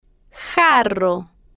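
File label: "jarro", Spanish, female, slow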